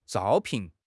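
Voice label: "zhao1 pin4", Mandarin Chinese; HSK; HSK4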